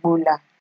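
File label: "gbola", Nigerian Pidgin, female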